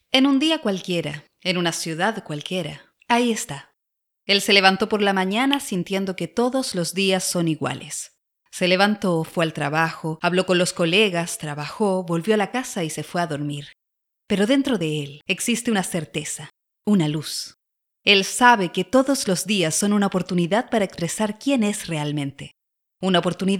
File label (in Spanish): Corporativo